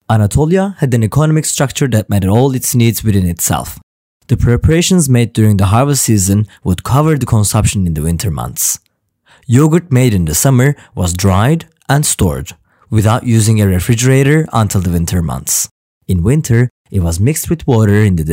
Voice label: english